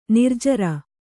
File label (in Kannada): "ನಿರ್ಜರ", kannada